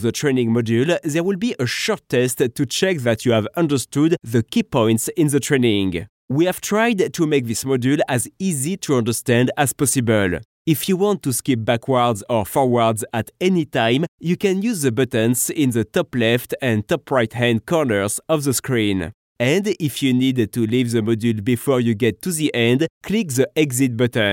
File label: french male english with french accent